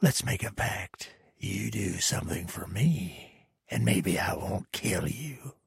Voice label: trader male dislike quest offer